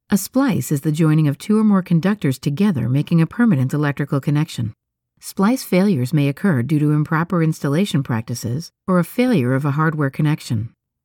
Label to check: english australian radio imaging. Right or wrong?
Wrong